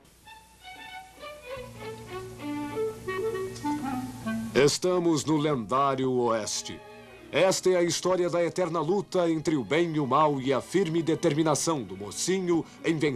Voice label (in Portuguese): Voz Narrador; Don Pixote